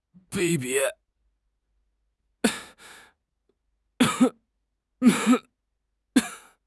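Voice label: "卑鄙", Chinese; HSK6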